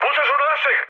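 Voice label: Serbian player friendly fire mood med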